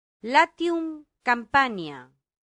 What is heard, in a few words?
"latium campania", Latin; santillana